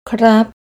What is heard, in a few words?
"Krab", Thai